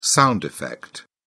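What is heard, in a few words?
"sound effect", British English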